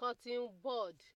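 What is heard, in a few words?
"cottonbud", Nigerian Pidgin, female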